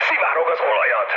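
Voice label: Hungarian damaged oil mood high, speech; War Thunder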